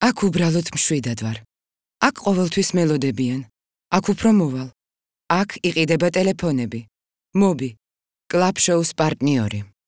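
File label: georgian male commercial; voice demos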